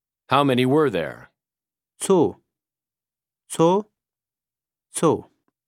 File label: How many were there (Pashto); Combat Language